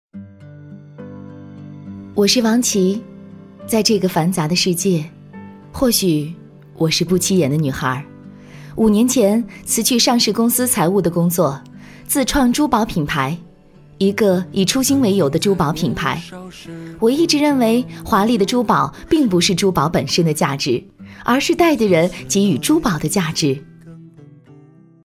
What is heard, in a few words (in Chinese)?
女 其他 旁白 人物旁白珠宝品牌